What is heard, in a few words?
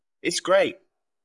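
VoiceActing es M2, voice acting, Spanish, male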